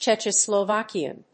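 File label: "Czechoslovakian", English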